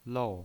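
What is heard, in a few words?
"lou", pinyin, Mandarin Chinese, fourth tone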